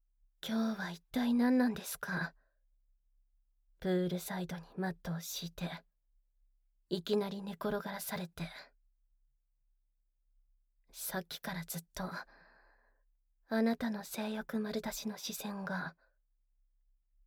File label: ASMR; 水着姿のなつみに馬乗りセクハラマッサージ♪; 水泳部見学部を作ろう!